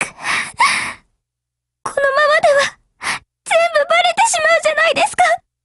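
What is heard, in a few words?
break, Japanese; GF Alarm; Carcano1938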